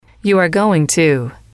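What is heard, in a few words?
"you are going to", English, female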